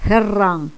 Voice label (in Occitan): "herran", gasconha